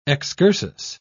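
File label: "excursus", British English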